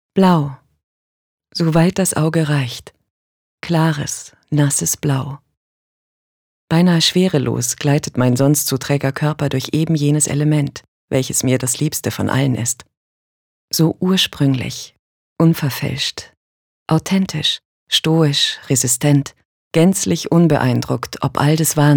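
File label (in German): acting, Poetisch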